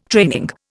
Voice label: "training", Indian